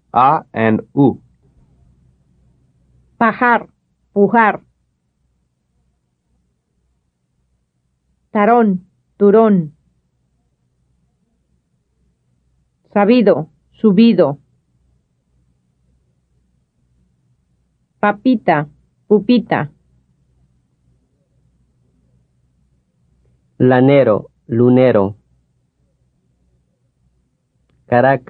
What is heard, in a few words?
Contrasting Spanish vowel sounds - a and u